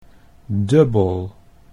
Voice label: "dubbel", Dutch

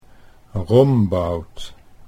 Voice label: "Rombout" Dutch

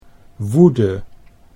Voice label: "woede", Dutch

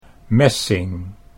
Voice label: "messing", Dutch

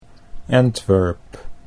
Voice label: "Antwerp", Dutch English

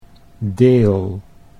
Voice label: "deel", Dutch

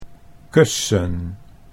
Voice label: "kussen", Dutch

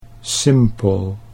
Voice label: "simpel", Dutch

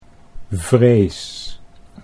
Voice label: "vrees", Dutch

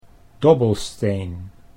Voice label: "dobbelsteen", Dutch

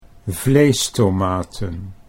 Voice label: "vleestomaten", Dutch

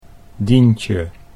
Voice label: "Dientje", Dutch

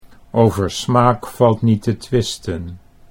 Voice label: "over smaak valt niet te twisten", Dutch

